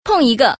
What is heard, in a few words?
"PENG", girl; ErRenmj